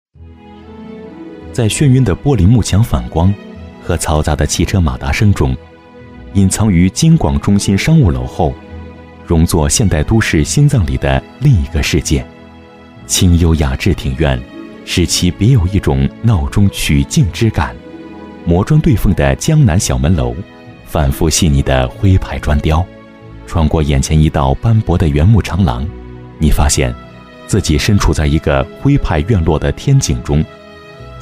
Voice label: 男 专题 客观陈述

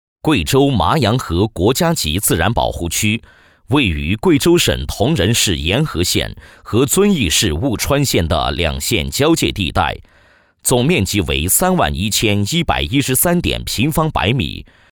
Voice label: 男 专题 保护区; 麻阳河保护区

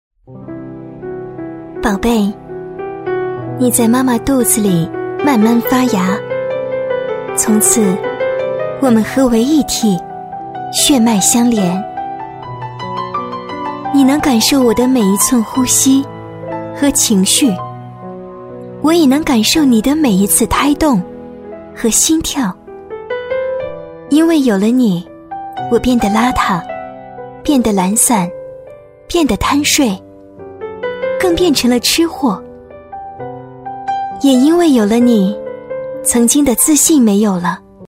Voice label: 女-旁白--宝贝（娓娓道来）

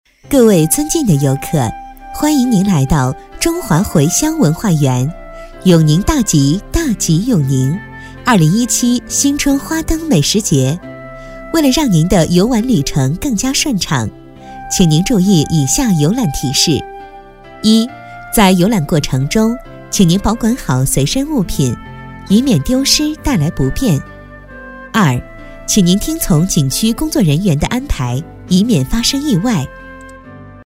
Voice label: 女 课件 温馨提示

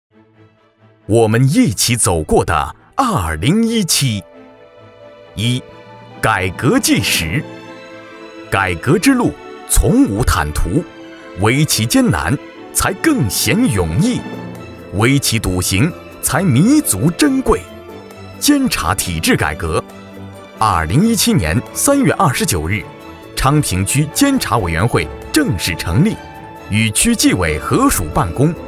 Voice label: 男 专题 工作汇报; 昌平工作汇报

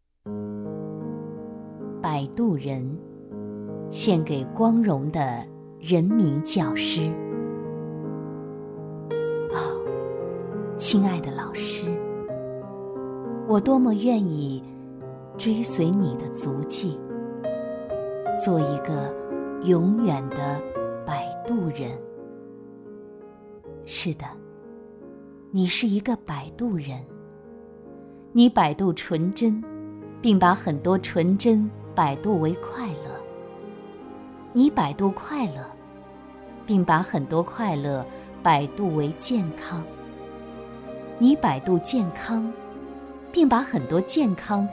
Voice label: 女 朗诵 情感热烈朗诵

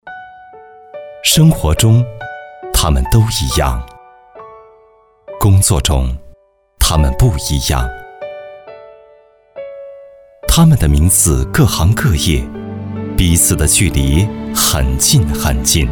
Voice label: 男, 专题, 温情; 税务

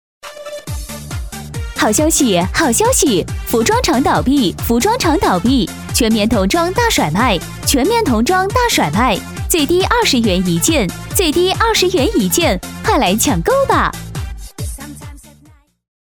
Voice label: 女4-促销-服装厂倒闭, 女

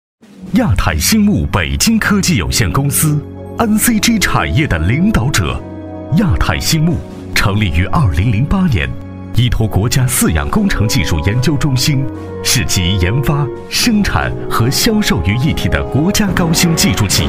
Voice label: 男 专题 科技感 大气 商务; 亚太兴牧; 科技企业

